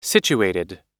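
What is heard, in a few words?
"situated", American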